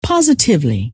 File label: "positively", scientist female